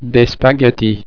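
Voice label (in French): "spaghettis", French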